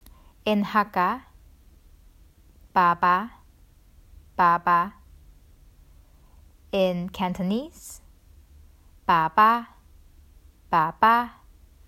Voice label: "Baba 爸爸", Hakka, Cantonese; The Duke Who Didn’t: Glossary & Pronunciation Guide

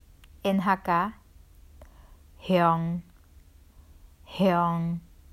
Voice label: "Hiong 香" Hakka; The Duke Who Didn’t: Glossary & Pronunciation Guide

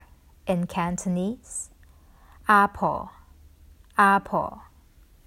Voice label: "Ah Poh 阿婆" Cantonese; The Duke Who Didn’t: Glossary & Pronunciation Guide

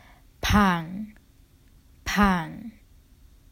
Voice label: "Pang 彭", Hakka; The Duke Who Didn’t: Glossary & Pronunciation Guide

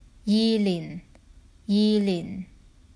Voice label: "Yi Lin 羽玲" Hakka

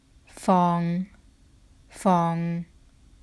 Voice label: "Fong 芳" Hakka; The Duke Who Didn’t: Glossary & Pronunciation Guide

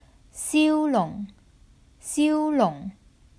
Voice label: "Siu Liung 秀龍", Hakka; The Duke Who Didn’t: Glossary & Pronunciation Guide

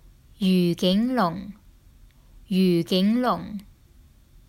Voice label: "Yu Ging Lung 餘景龍", Cantonese; The Duke Who Didn’t: Glossary & Pronunciation Guide

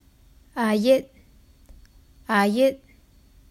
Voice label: "Ah Yit 阿翌", Hakka; The Duke Who Didn’t: Glossary & Pronunciation Guide

